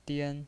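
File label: "dian" Mandarin Chinese, first tone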